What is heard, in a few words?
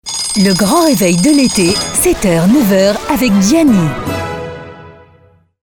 radio jingle